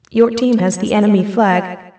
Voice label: team flag, female; teamplay